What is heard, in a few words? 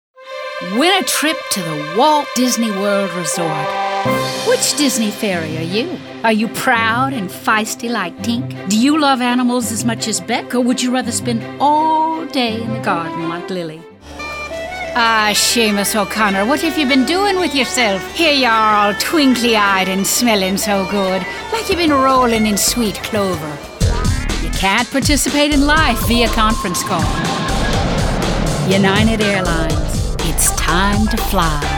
English, english females